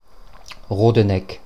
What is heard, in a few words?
Rodeneck Standard